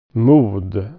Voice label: "courage", swedish